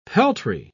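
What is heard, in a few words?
"peltry", English, British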